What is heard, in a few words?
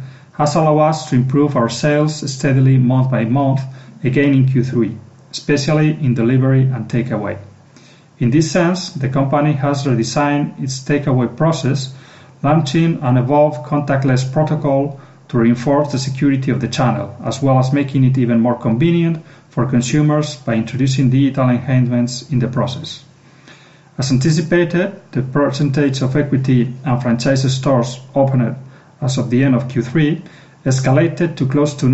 Results presentation voice over